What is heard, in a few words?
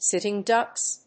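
"sitting ducks", English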